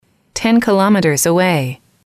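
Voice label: "ten kilometers away", English, female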